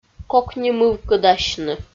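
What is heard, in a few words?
"кокнимывкыдасьны", Komi, female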